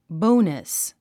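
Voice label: "bonus", English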